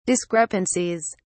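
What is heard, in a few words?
"discrepancies", British English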